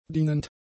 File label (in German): "dienend", german; adjectives